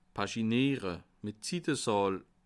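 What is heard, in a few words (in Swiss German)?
"paginiere", Alsatian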